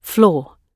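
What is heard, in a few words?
"floor", British English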